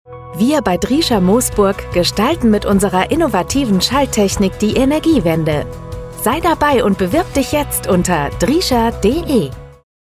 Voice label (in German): Funkspot; Driescher und Soehne GmbH